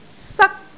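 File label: "sak1", Cantonese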